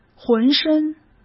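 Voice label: "浑身", Mandarin Chinese; chinese-flashcards; hsk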